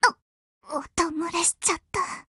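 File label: BREAK Japanese, Voice; GF Alarm; Delisle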